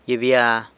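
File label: "gyibya", prickly pear cactus, San Lucas Quiaviní Zapotec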